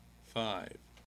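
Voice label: "five", US English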